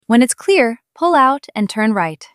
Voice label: Female Pull Out Right